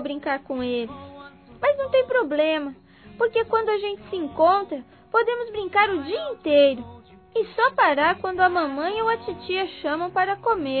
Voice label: ANIV. PRIMO(A), Infantil masc; Telemensagens 2017

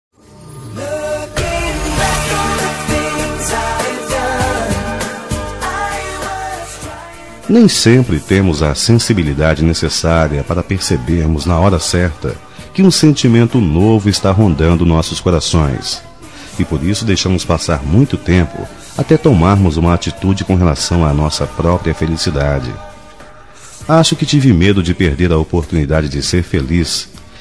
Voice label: ROM SUAVE MASC

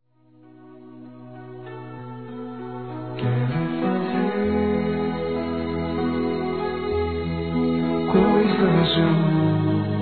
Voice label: Entre-Nós, fem; Telemensagens; Sensuais

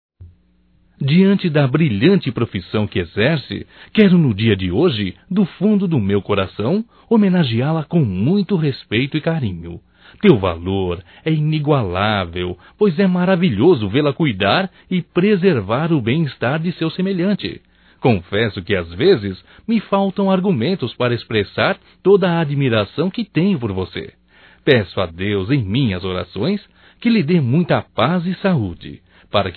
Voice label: Dia Médica Masculino, Telemensagens, Só Voz; DATAS COMEMORATIVAS

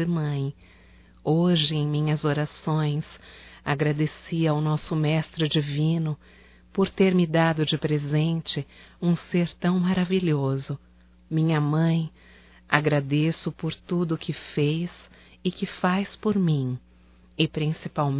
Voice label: NATAL MAE, Telemensagens, fem; Telemensagens 2017; Natal & Ano Novo

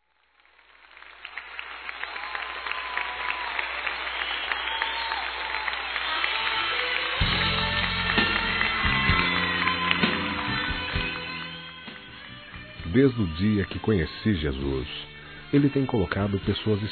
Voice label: Amiga Masculino; ANIV. Evangelico

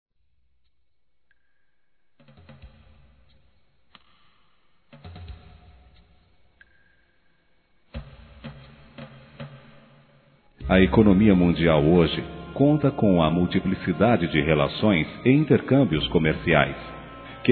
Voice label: Telemensagens Empresarial masc; PARCER.ENTRE CLIENTES; Telemensagens 2017

